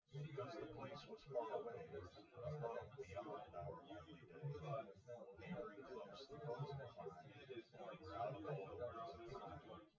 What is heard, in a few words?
test male synthconv 250ms 1m sim; SiSEC08; SiSEC underdetermined